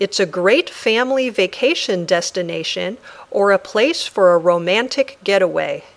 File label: Dictation